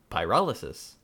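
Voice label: "pyrolysis", US English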